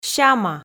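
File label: "сяма" Komi, female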